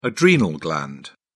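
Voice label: "adrenal gland", British English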